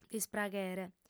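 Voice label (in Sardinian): "dispraghere", female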